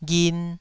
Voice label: "ghin2", Taiwanese syllable